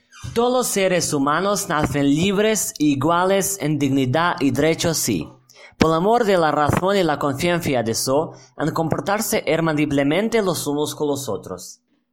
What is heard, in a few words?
asturian